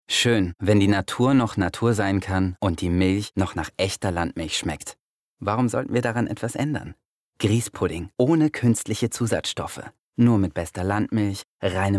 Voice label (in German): Werbung Grießpudding